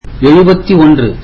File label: tamil seventy one